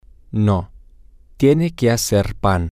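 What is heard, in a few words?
No she has to make bread, Spanish, male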